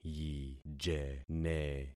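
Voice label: "yijene", concatenated syllables; Affixing experiment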